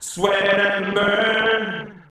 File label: Male FX Vocals; Houseworx